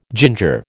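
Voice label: "ginger", American English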